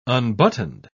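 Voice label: "unbuttoned", British English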